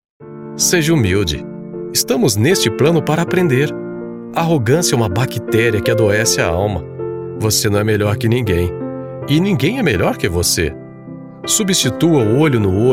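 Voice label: Brazilian Portuguese, Narration motivational; GoLocalise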